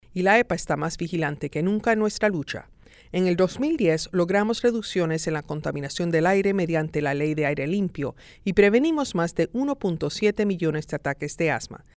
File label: Asthma Spanish